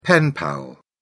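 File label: "pen pal", English, British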